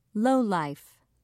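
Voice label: "lowlife", British English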